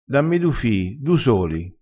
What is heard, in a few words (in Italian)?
"du", crespinese